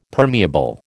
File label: "permeable", American